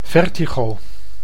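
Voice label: "vertigo", Dutch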